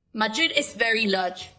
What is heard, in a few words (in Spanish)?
Voice Acting es